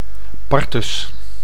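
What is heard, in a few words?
"partus", Dutch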